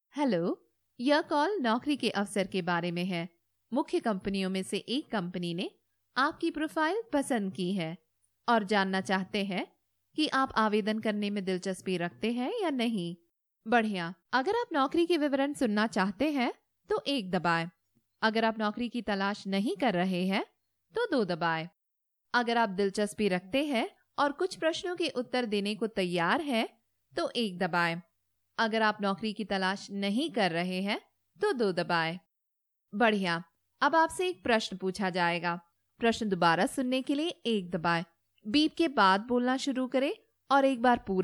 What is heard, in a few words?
Hindi IVR